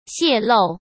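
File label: "泄漏", Mandarin Chinese; chinese flashcards; hsk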